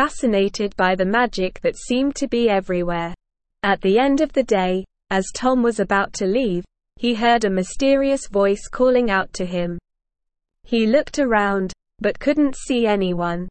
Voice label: ESL Short Stories for Kids SLOW reading The Enchanted Forest